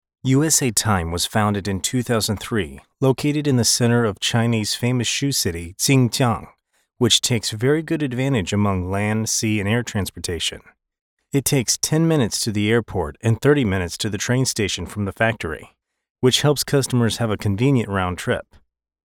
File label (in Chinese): 英语稿; 十光鞋业